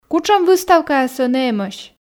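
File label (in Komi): кутшӧм выставкаяс ӧні эмӧсь, Komi, female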